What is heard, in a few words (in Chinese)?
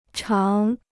"常", Chinese